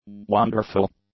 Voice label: "wonderful", english; adjectives